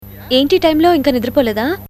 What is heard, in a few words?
Good Night Rintone Telugu